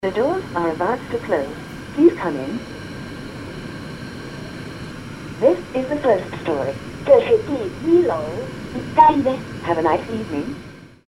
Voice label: elevator voice in bangkok

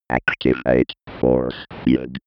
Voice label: computer voice

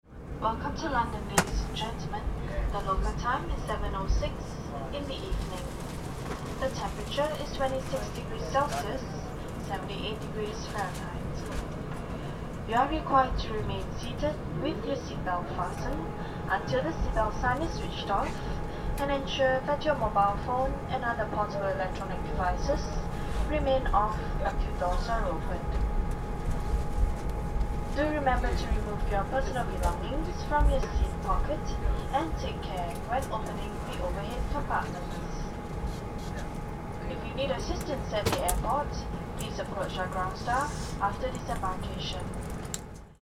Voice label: airplane english

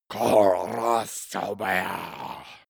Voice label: male curse evil

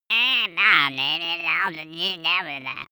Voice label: goblin mumble loud

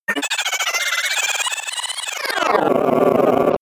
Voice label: glitch talk fx

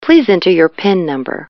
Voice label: indication number english

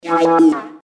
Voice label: alien speech

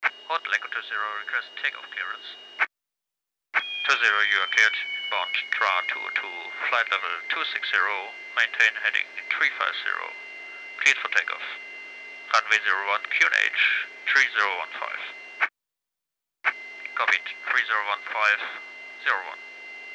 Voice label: jet radio message

